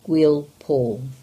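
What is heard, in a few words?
"Gwealpaul", English; place names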